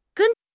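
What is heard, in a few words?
"gen1", Chinese; HSK3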